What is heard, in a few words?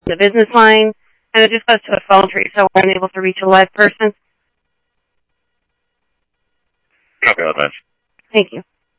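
Simulcast police department, scanner audio trunk; Mesa Simulcast; MESA PD Superstn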